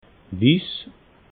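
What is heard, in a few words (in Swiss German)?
"wiss", Alsatian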